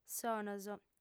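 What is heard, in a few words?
"sonos", female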